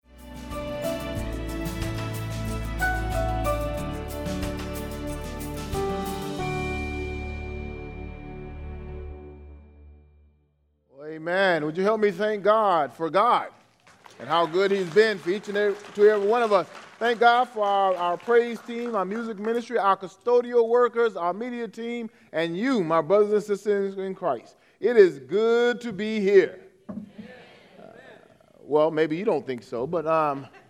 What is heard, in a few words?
English Sermon